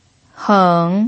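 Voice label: "heng" third tone, pinyin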